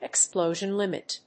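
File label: "Explosion Limit", English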